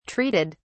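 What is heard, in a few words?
"treated", British English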